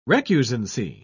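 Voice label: "recusancy", British English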